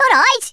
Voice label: "hello", Brummie; Worms Armageddon